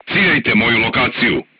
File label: voice message art command oneself, crew tanks serbian